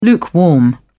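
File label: "lukewarm", British English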